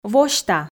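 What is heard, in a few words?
"восьта", Komi, female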